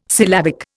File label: "syllabic", Indian